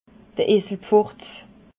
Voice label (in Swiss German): "eselpfurtz", alsace